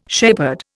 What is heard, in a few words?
"shepherd", Indian